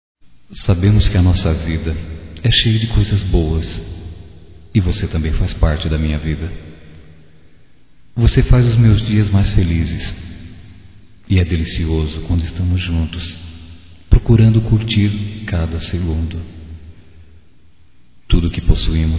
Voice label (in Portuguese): Romântica Masculino